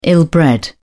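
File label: "ill-bred", English, British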